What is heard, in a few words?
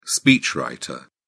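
"speechwriter", English, British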